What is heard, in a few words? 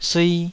"sui2", taiwanese Syllables